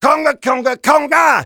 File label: Taunt demo conga fun